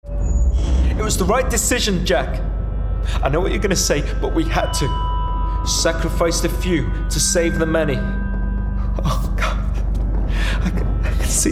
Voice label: Gaming Character Reel

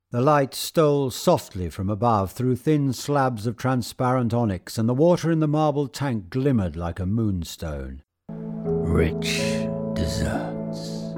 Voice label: Voice Actor

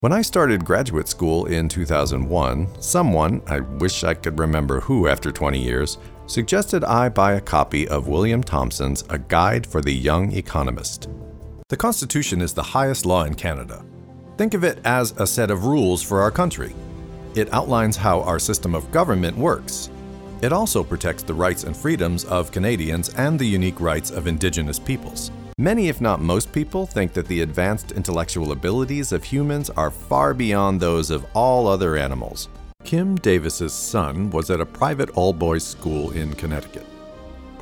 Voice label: Narration

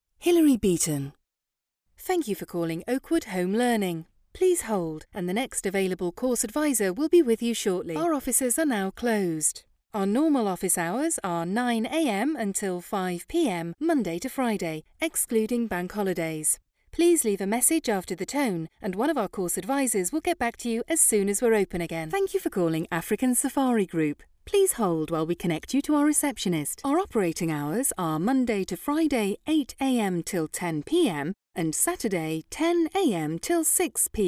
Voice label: Phone Prompts

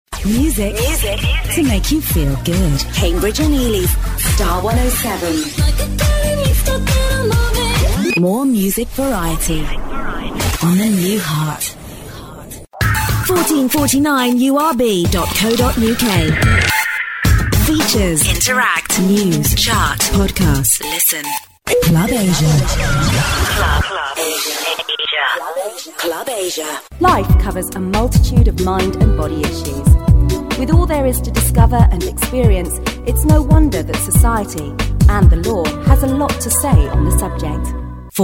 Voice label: Station Imaging

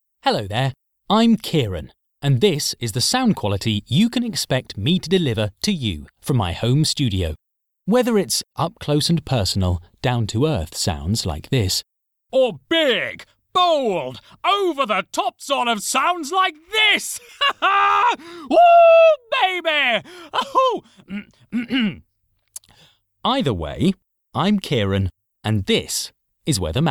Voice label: Dry Reel

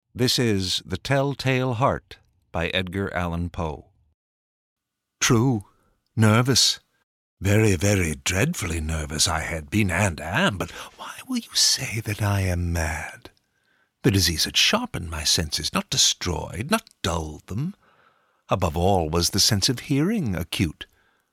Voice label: Horror sample

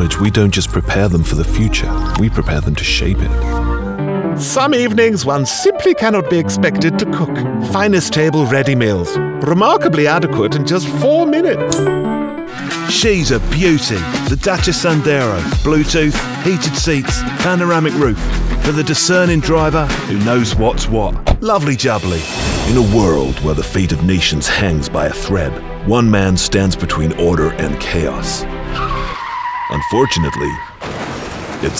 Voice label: Commercial Reel

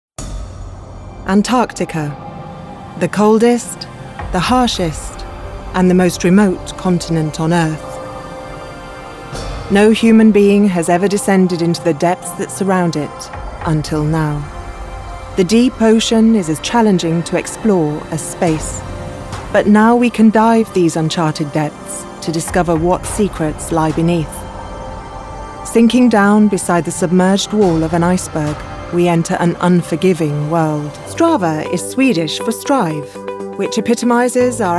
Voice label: Narrative Reel

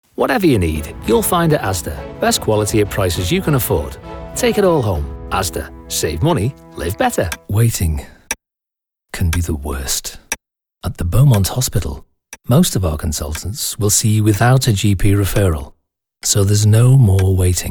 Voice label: commercial

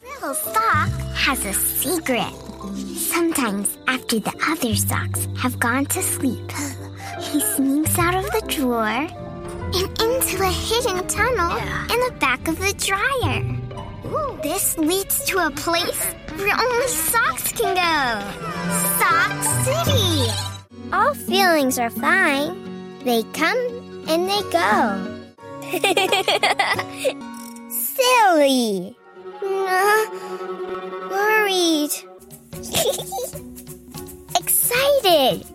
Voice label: VO Demo Animation

